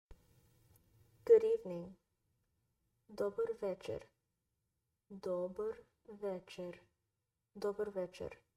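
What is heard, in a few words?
Good evening, Bulgarian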